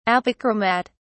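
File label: "apochromat", British English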